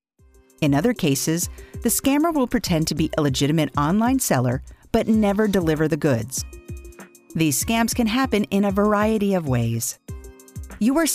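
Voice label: narration scam training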